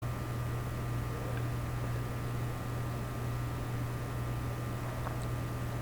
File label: EVP Male Voice; Crystal Ballroom